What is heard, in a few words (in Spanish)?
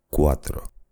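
"cuatro", Spanish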